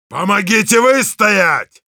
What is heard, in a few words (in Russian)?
help me defend, ru; Heavy; «Помогите выстоять!»